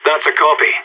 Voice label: affirmative, radio; SAS